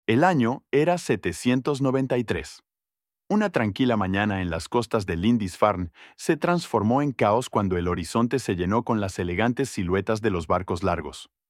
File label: Practice your Spanish speaking skills; learn spanish through stories